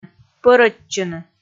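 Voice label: "пӧрӧдчыны", Komi, female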